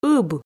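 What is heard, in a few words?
"ыб", Komi, female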